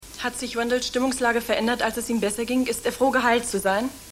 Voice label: Reporterin, Stimmproben

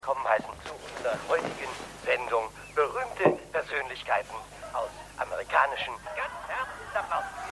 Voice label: TV Moderator

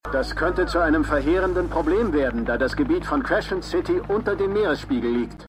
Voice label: Reporterstimme; MEMORIAL HOSPITAL - DIE TAGE NACH HURRIKAN KATRINA (DF 2022); Five Days at Memorial

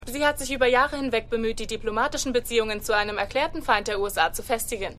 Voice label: Nachrichtensprecherin; Madam Secretary

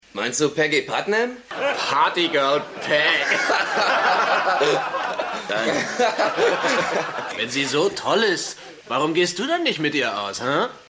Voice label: Mann

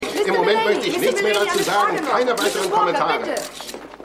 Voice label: Reporterin

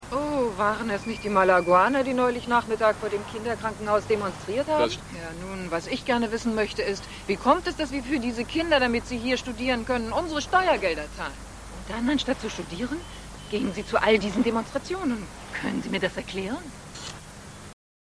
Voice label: Frau

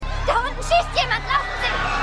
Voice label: Rennende Frau

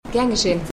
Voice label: Frau; Stimmproben; Arrow

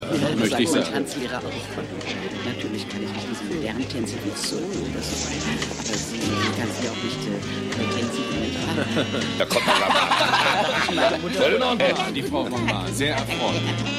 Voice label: Taenzerin 2 Jungen Aeltere Frau; Maennerwirtschaft

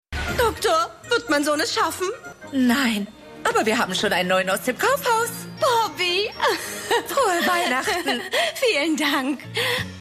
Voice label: Besorgte Mutter Kinderaerztin; Alle Hassen Chris

